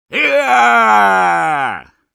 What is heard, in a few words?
Sniper battlecry, ru; «Йааааа!»